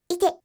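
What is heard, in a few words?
"ite", Japanese; hidan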